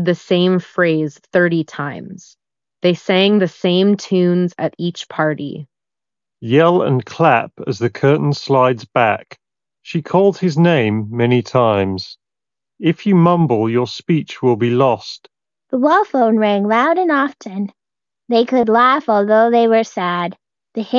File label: Skullcandy Crusher ANC XT2 Ideal microphone sample